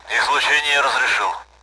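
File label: Speech, Russian, AWACS; radar on; DCS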